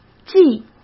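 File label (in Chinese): "寄", Mandarin Chinese; hsk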